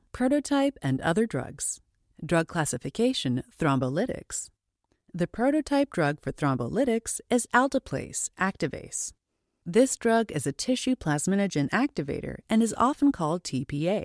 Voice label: Medical E Learning